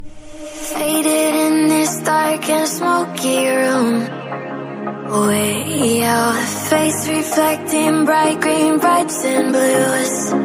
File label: Female English Ringtone